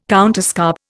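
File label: "counterscarp", Indian English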